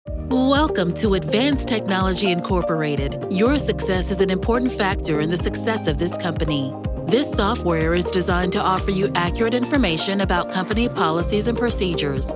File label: Narration